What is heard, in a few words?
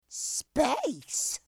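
"space", English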